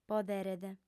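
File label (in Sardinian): "poderet", female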